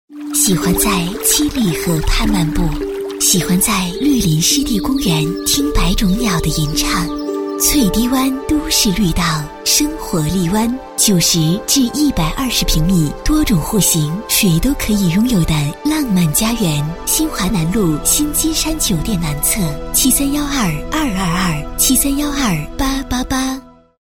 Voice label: 女 广告; 邢台翠堤湾感受篇

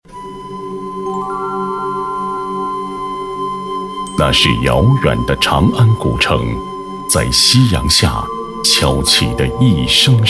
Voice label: 男25, 国语男声配音; 央视大气专题; 大唐盛风

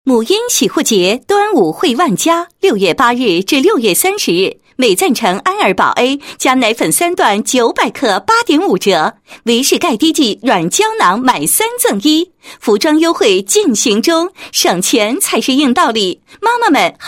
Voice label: 女 促销广告 母婴用品促销; 母婴洗护节

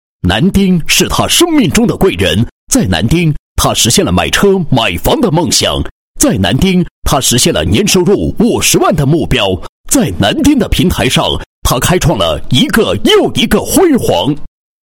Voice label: 男 颁奖 销售冠军颁奖词; 南丁销售冠军颁奖词

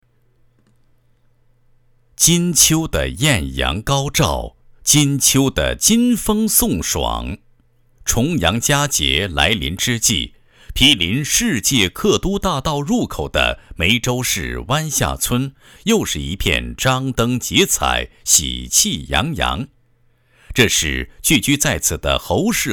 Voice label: 男 文化历史 解说词; 安国公乙未岁祭祖影碟解说词

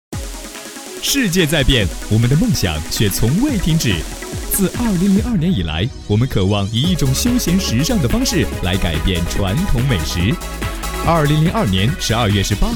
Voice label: 广告 欢快 时尚 美食世界, 国语男声配音

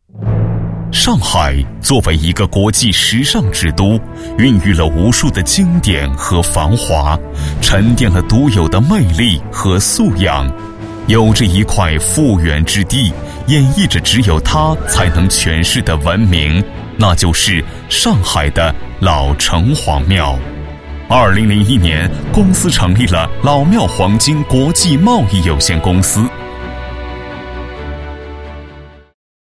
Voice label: 男 广告, 国语男声配音; 老庙黄金; 超级广告王

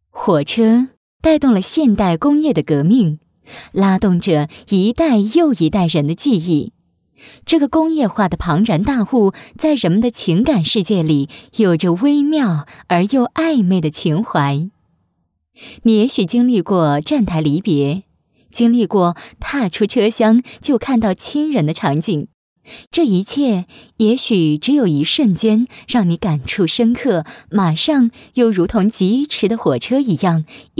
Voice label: 女 磁性 情怀

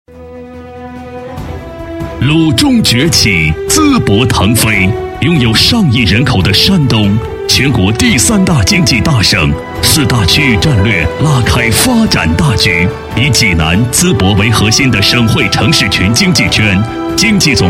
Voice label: 男40 专题 大气, 国语男声配音

